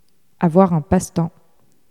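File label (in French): "avoir un passe-temps" French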